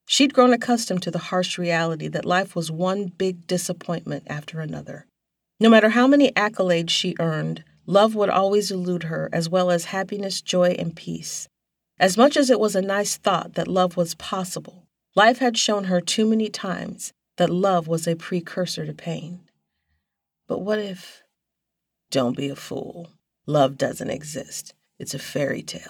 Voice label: Sample Religous Fiction